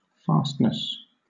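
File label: "fastness", British English; pronunciations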